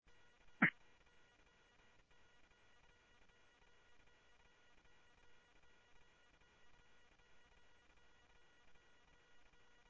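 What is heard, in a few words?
Police Department, scanner audio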